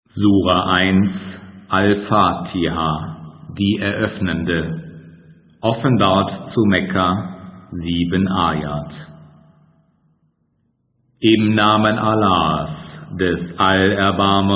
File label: German Audio Quran Translation